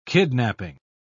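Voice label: "kidnaping", British English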